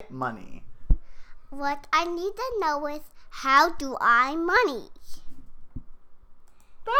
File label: bad voice